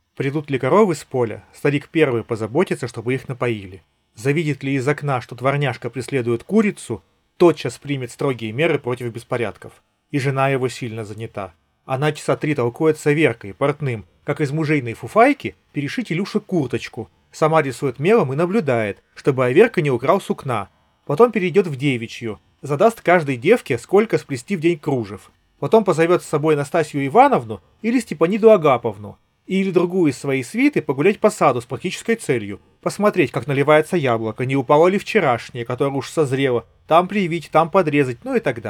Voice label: Recorder; yandex drops